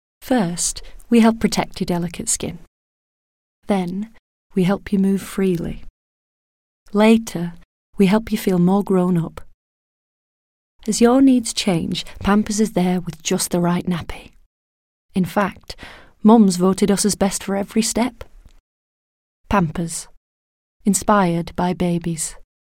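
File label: British English Commercial; GoLocalise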